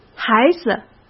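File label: "孩子", Mandarin Chinese; hsk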